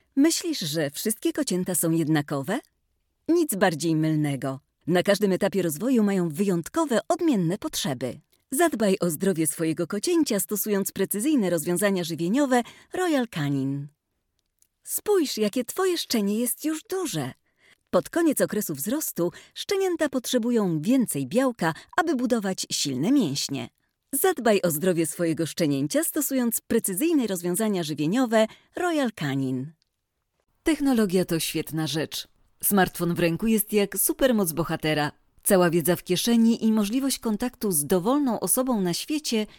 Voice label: Polish

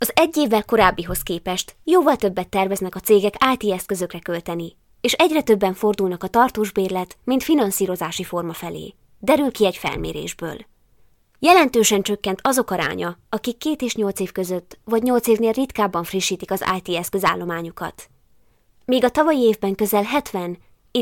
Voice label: Hungarian

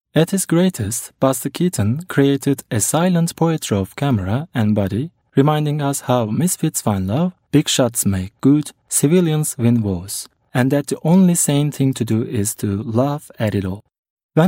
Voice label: Turkish English

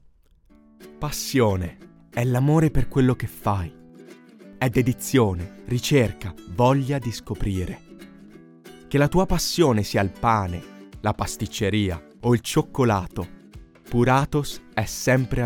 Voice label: Italian Corporate; English

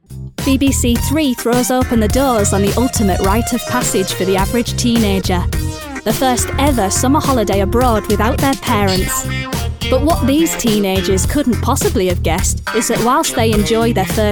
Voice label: Narration, female

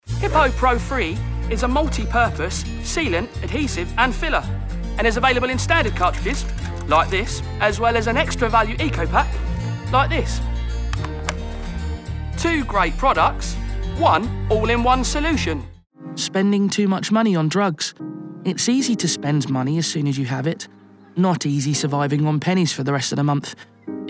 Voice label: Commercial; Male; 20s-30s

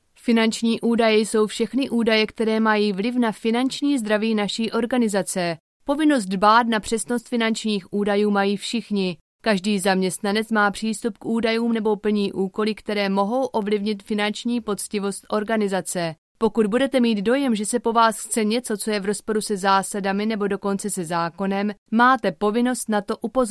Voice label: Home Studio

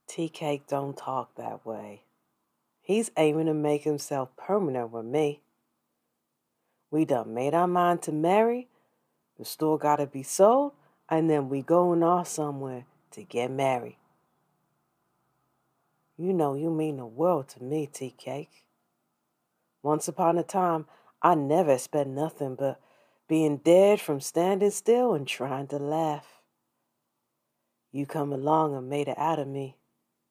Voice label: Home Studio Read

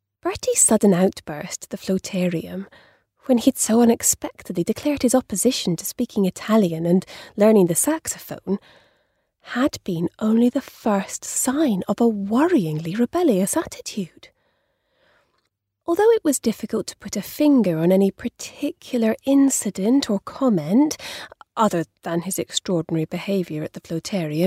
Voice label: audiobook

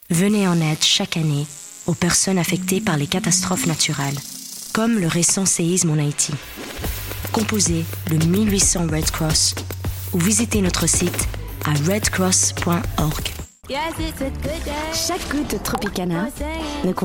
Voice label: French

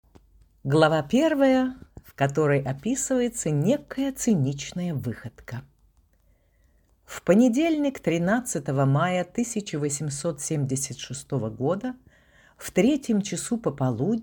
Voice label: Russian

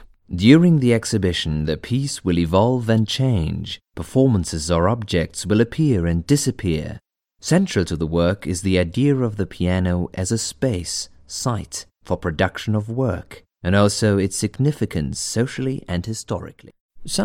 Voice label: English

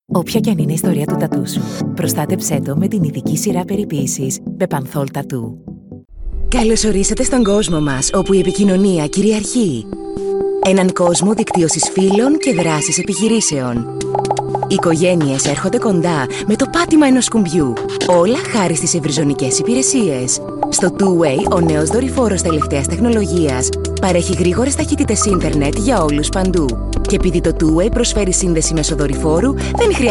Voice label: GREEK

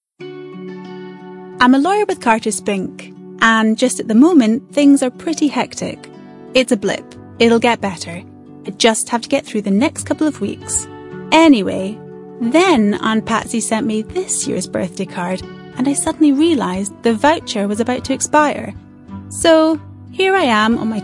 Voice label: Scottish Narration

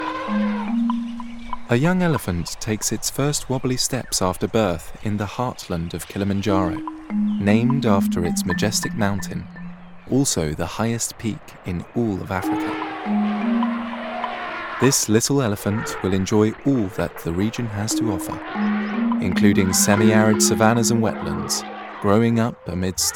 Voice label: Narration

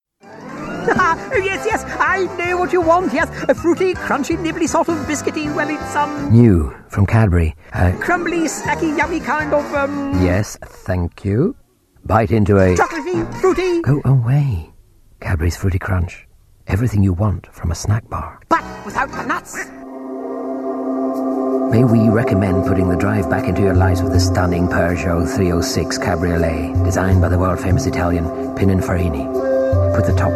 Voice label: Scottish

